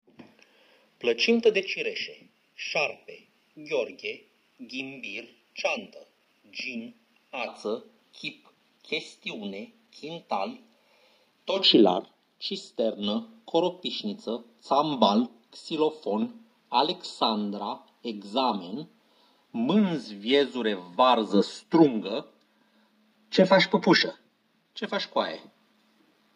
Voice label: Interview